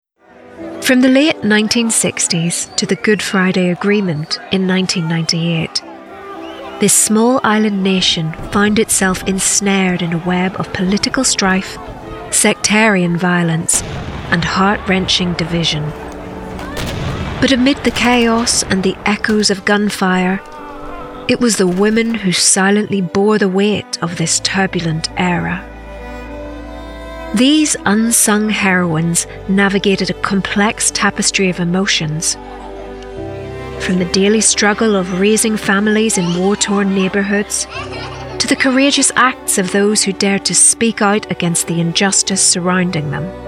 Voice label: DOCUMENTARY

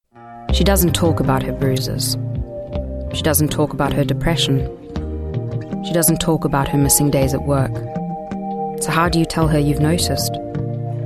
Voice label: Commercials

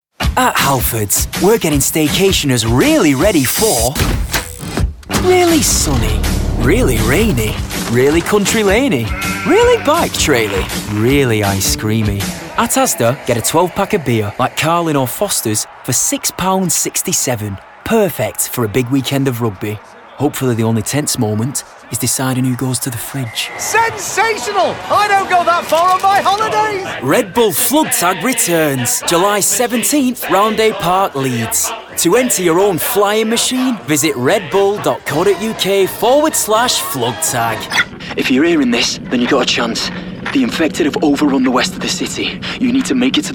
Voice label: Commercials, 30s

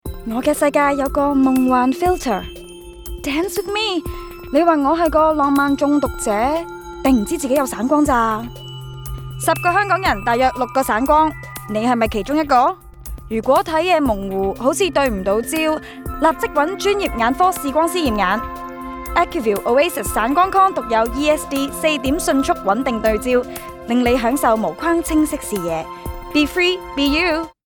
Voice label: Cantonese

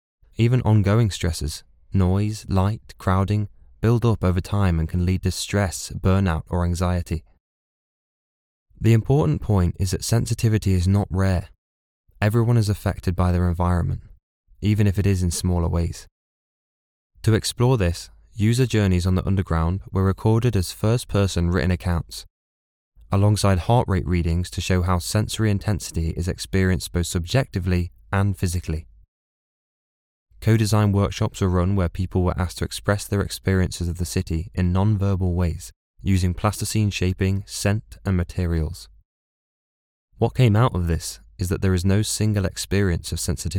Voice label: Narration, nottingham

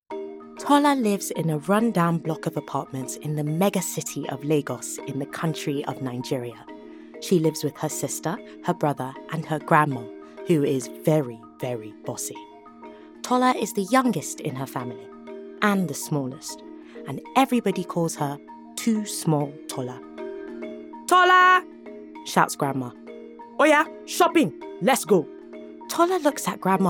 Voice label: Audiobook

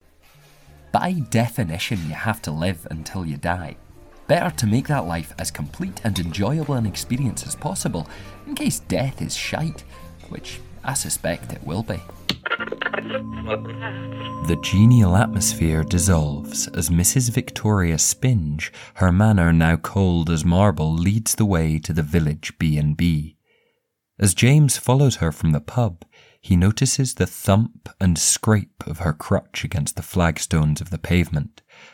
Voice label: Drama Audiobook; 20s-30s. Male. Scottish.

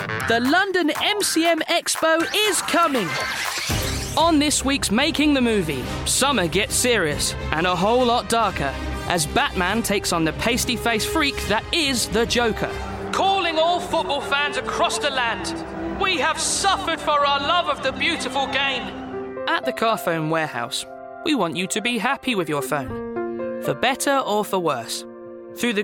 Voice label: Commercial

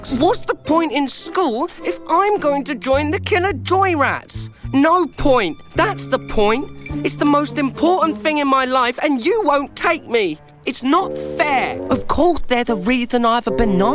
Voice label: Animation, Male voice over

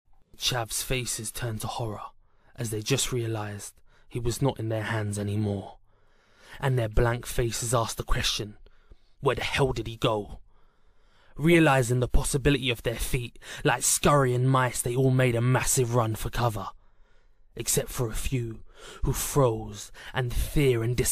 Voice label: Drama

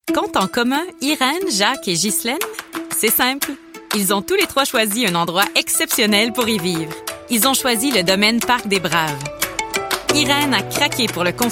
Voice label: French

